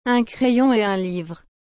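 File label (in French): "un crayon et un livre", French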